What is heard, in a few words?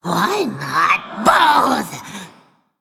Male Undead Attack